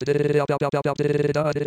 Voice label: Robo gibberish